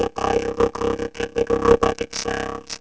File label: robot, vocoder; PAiA vocoder